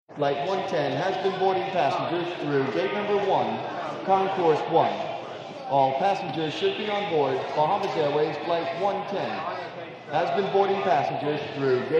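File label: Flight Announcement, airport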